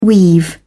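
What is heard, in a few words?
"weave", British English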